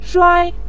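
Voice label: "shuai", Mandarin Chinese, neutral tone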